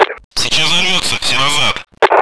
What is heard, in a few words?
blow, radio, russian; cstrike